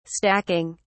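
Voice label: "stacking", British English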